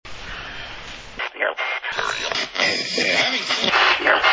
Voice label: male voices